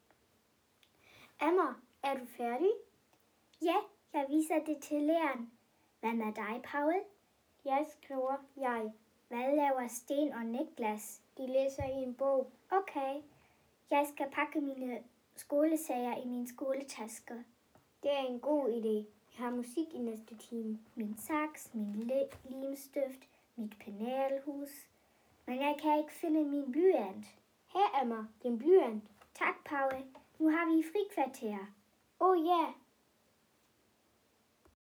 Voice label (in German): Dialog